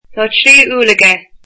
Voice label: he has three apples, Irish